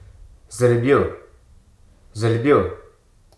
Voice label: "foment", Hruso

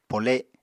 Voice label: "pole7", Apatani

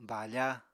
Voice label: "bafa", Apatani

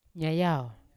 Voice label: "nyayau" to speak, Yawa

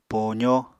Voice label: "poozó", apatani

